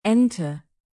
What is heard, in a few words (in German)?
ente, native; alphabet de